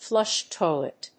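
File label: "flush toilet", English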